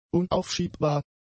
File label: "unaufschiebbar", German; adjectives